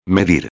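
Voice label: "medir", Spanish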